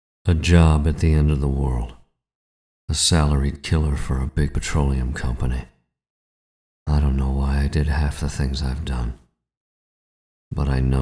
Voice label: Film Narration

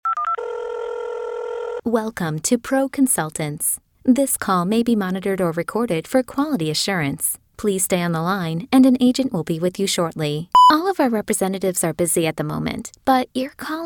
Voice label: Phone IVR Telephony, On Hold, Voicemail

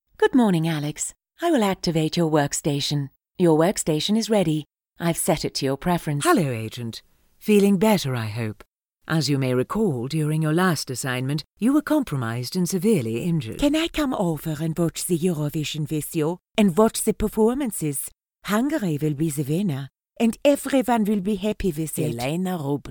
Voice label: Voice Acting and Character

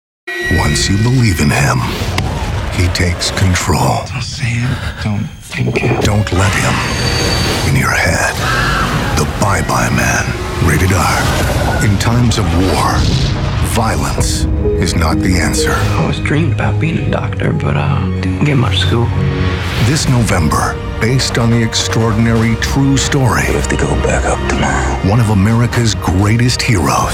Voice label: trailers

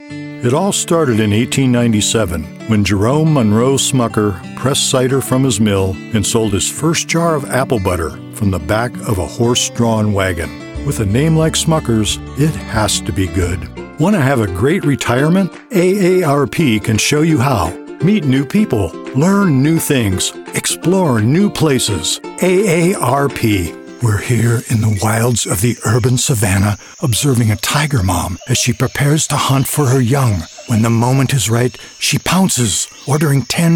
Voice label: VO Stereo COMP Mix

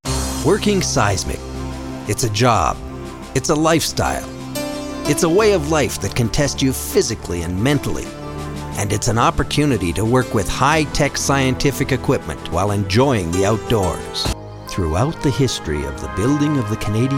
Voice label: Demo Reel Narration

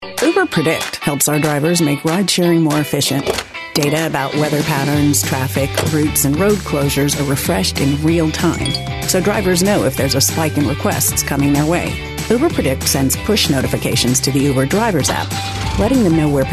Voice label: Corporate Narration

